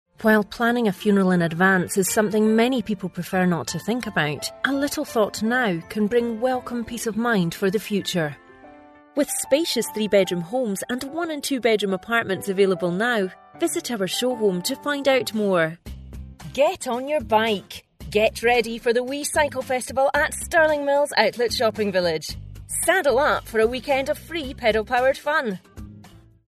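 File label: English, Scottish, female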